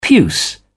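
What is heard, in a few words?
"puce", British English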